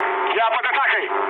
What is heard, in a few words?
aircraft damaged, mood low, speech ru